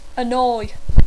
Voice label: "annoy", English